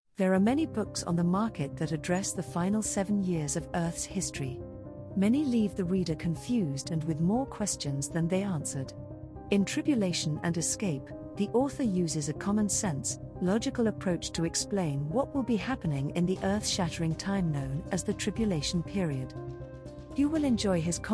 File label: Voiceover